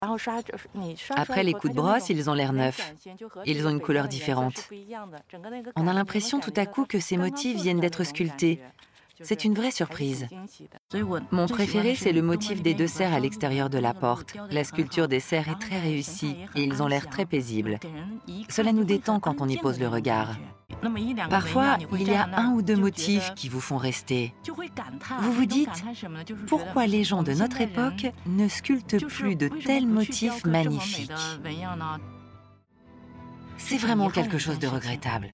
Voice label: voice over extrait; voix-off; Fengyang la cite abandonnee